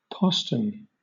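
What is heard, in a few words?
"postern", British English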